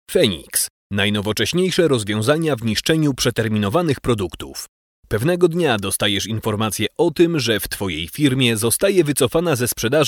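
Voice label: PL M narration, Polish, male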